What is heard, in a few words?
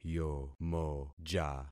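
"yomoja", concatenated syllables; Affixing experiment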